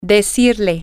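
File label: female, spanish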